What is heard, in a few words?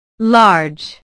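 "large", English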